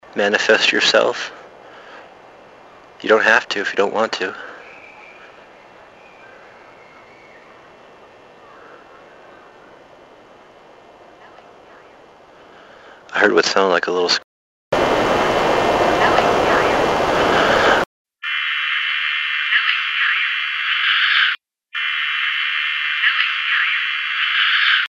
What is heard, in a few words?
far woman